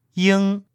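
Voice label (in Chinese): "ying", Mandarin Chinese, male; ying [jəŋ]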